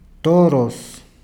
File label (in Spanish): "toros", Peru Cuzco; toros [ˈtɔɾɔs]